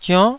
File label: "zionn9", taiwanese